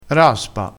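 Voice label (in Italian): "raspa", vocabolario dialettale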